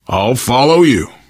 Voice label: lead on, survivor voice

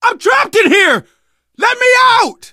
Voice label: call for rescue, survivor voice; source engine; left 4 dead 2; manager

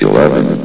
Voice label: "eleven", vox; Source Engine; bshift

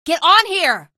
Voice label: get aboard, female; video games; npc; source engine; episodic; episode 1

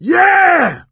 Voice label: battlecry, voice; source engine; left 4 dead 2

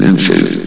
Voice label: "inches", vox; Source Engine; bshift

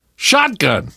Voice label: take autoshotgun, survivor voice; source engine; left 4 dead 2; namvet

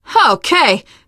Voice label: nice job, teengirl; source engine; left 4 dead 2; survivor

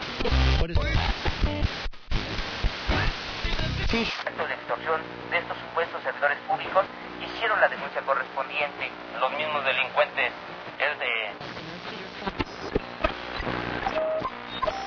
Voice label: spanish radio, ambient chatter; Source Engine; cstrike

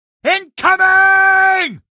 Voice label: incoming, male

